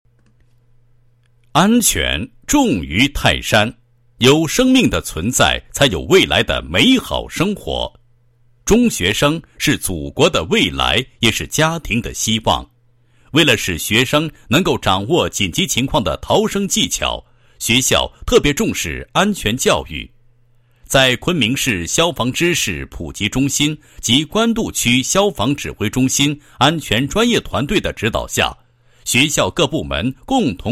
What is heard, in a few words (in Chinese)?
男 学校 学校消防安全知识培训, 配音案例